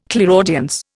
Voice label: "clairaudience", Indian